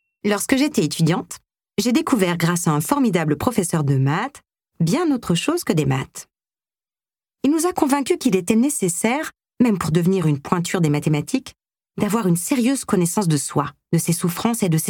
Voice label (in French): calme dynamique